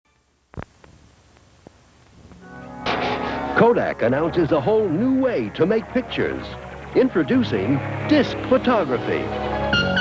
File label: Commercial; Kodak Disc Camera